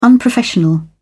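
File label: "unprofessional", British English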